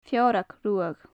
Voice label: "feorag ruadh", gaelic; Faclan Nàdair